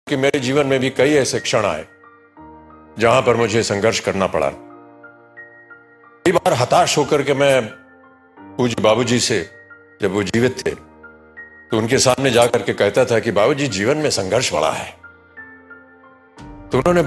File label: Hindi Audio; OpenAI Whisper Example